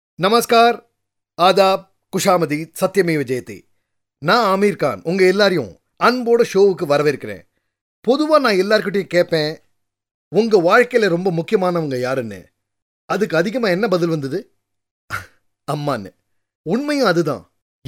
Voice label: Tamil Male